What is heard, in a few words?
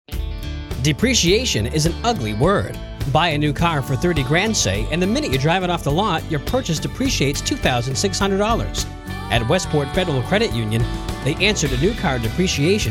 radio ad auto loan